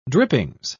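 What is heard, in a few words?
"drippings", British English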